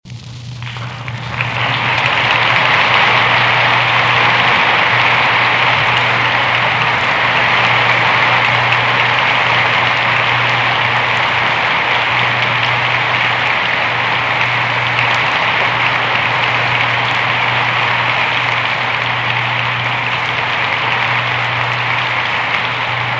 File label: Final Announcement; 1946 Grainger at Baylor